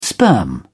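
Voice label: "sperm", English, British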